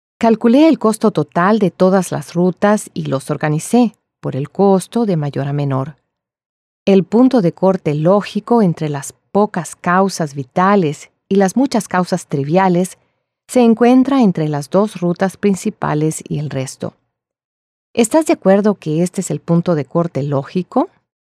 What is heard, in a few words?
Spanish Character; Voice Samples; Foreign Language